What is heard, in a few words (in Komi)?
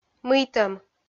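"мыйтӧм", Komi, female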